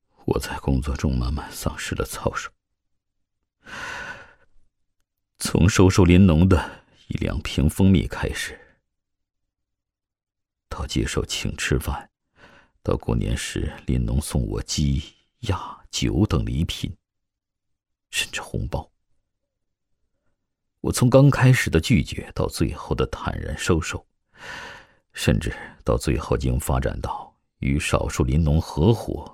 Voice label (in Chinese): 男 角色 中年干部受贿忏悔书 难受悔恨感; 素人自然